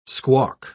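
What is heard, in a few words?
"squawk", English word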